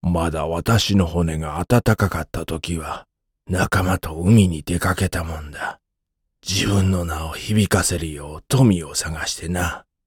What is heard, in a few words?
character